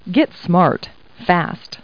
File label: people, kid